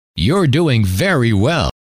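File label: you're doing very well, male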